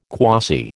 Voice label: "quasi", American